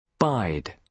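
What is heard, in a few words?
"bide", British English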